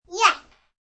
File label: A toddler says Yeah; Talking; Sound Effects:Voice